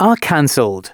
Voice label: announcements, male